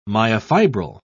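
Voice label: "myofibril", British English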